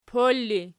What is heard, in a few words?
"pol", Archi